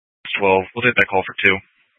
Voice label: Fire Department Dispatch; Grand Junction

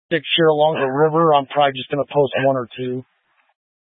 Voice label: Incident Tac 3, scanner

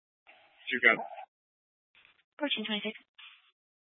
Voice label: Fire Department Dispatch, scanner; Grand Junction Fire Department Dispatch

